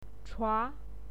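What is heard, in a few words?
"chua", Mandarin Chinese, second tone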